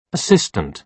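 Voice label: "assistant", British English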